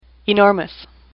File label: "enormous", English; AWL